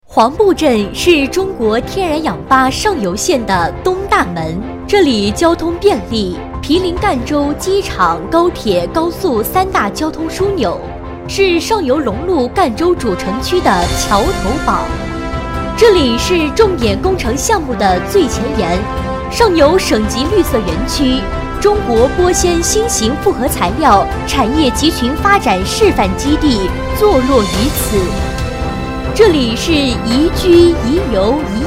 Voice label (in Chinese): 宣传片配音